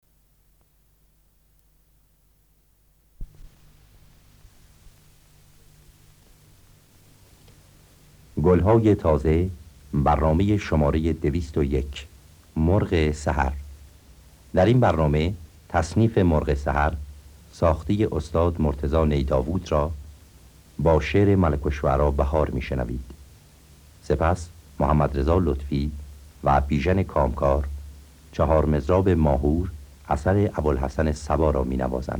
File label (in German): Ansage